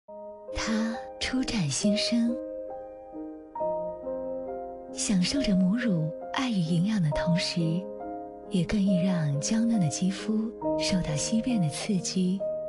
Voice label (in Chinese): 女 品牌短片 敏感肌纸尿裤; 帮宝适